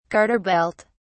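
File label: "garter belt", British English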